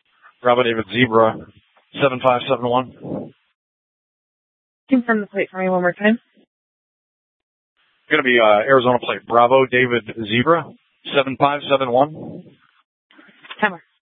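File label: police dispatch, Scottsdale PD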